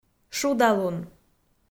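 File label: "шудалун", Komi, female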